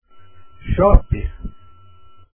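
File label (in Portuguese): "chopp", Portuguese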